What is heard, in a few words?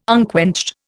"unquenched", Indian